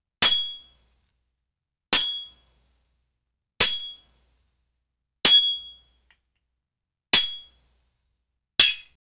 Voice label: a british man saying for; metal armor